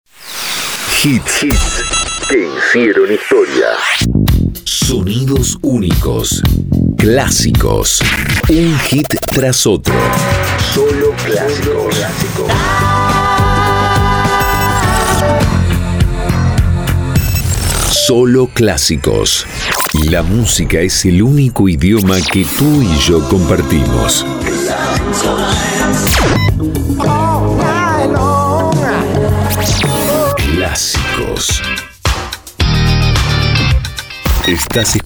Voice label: ARTE CLASICOS spots, Spots Radio Clasicos, Voz masculina; Para vestir tu radio o programa

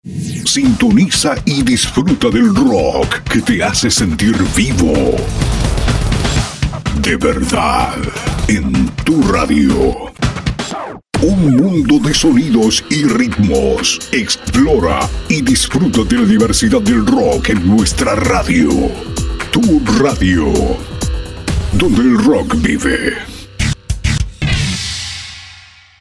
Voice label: Pack Rock, Spots estilo ROCK, Voz masculina; Pack arte radio y programas; 10 separadores y 5 pisadores

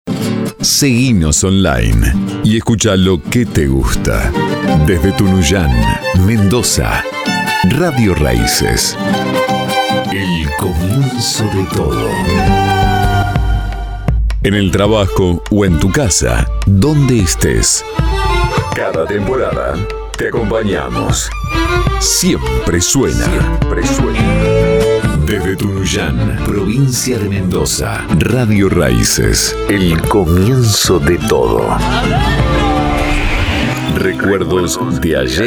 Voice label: Arte Folklore, Estilo Folklore, Voz masculina; Para radios y programas

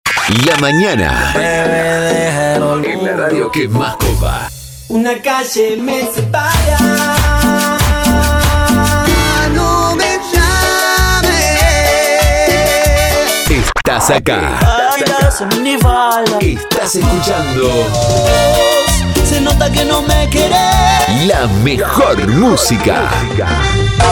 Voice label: PACK TROPICAL, Spots Tropical, Voz masculina; Spots Tropical 3 todo el dia; Para vestir tu radio o programa

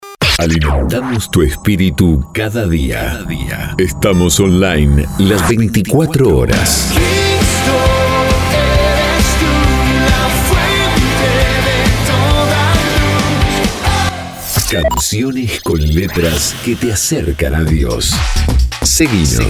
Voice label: ARTE CRISTIANO Pack, Spots generales musicales, Voz masculina; Para tu radio Cristiana; Arte generico musical; Pack arte radio y programas; 20 separadores personalizables

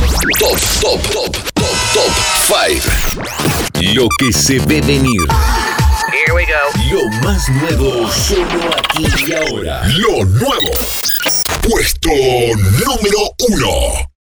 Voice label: RANKING PACK, Artistica para Ranking, Voz masculina; Para vestir tu radio o programa; Mas de 80 spots - 20 puestos

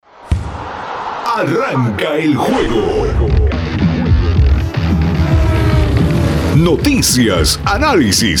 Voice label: Arte Deporte, Pack artistica de deporte, Voz masculina; Spots para programas; 10 separadores personalizables

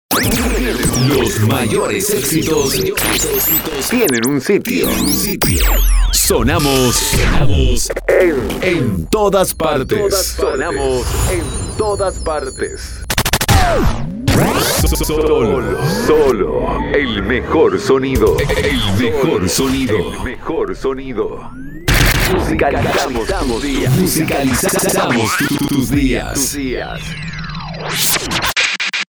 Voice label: Pack Shots, shots fxs personalizados, Voz masculina; Estilo generico para todo el dia; 20 shots fxs personalizados

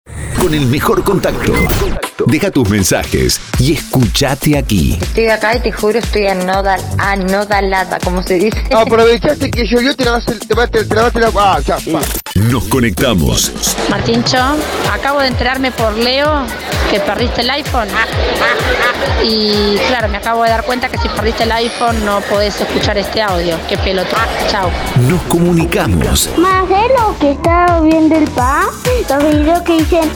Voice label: Arte Pack Whatsapp, Voz masculina; Para vestir tu radio o programas; 10 spots personalizables

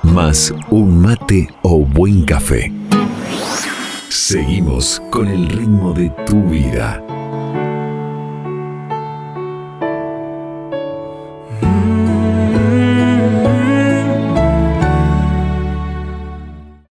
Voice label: Pack Arte Noche, Spots genericos, Voz masculina; Para vestir LA NOCHE; 10 spots personalizables

